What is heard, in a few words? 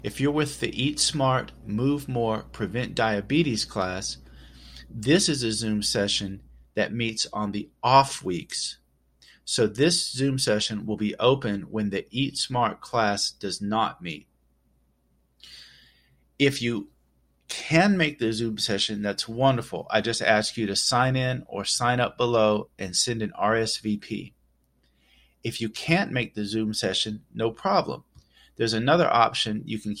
Voice Message; FlexFit Voice Message Board; Landing Page Welcome